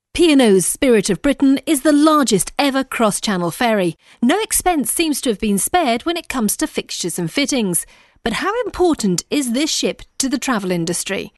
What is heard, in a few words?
narration